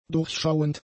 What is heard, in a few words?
"durchschauend", german; adjectives